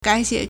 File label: "gai3 xie3", Chinese